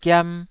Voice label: "giam2", Taiwanese syllable

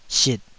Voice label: "sit3", Taiwanese syllable

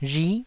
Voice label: "rhi9", taiwanese

